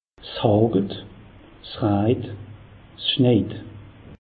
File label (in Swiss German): "hagelt", alsace; meteo